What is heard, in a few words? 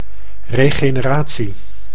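"regeneratie", Dutch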